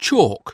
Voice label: "chalk", English

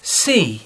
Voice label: "sea", English

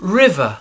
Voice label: "river", English

